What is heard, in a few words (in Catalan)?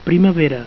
"primavera", Catalan